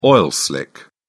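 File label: "oil slick", British English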